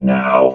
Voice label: "now", robot vox